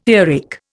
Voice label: "earache", Indian